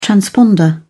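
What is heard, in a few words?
"transponder", British English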